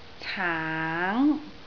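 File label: "caang4", Cantonese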